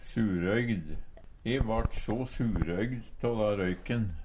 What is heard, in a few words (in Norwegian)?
surøygd, Numedalsmål; Adjektiv